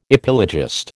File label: "epilogist", American